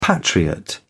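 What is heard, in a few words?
"patriot", British English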